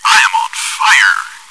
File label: cheer; svencoop